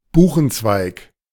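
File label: "Buchenzweig", German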